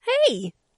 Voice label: bonjour, voix